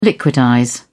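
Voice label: "liquidize", British English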